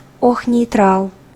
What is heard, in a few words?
girl